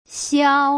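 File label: "xiao", Putonghua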